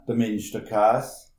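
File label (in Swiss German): "minschterkaas", Alsatian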